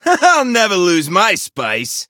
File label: touch, en; cookierunwiki